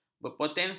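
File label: "berpotensi", Malay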